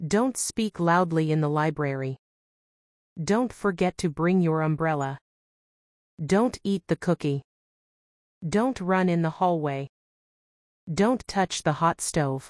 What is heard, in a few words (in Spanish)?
ejemplos imperativo negativo ingles